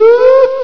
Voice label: "woop", vox; Source Engine; bshift